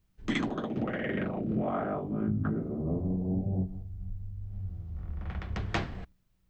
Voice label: xsynth speech mod